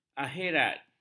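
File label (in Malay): "akhirat", Malay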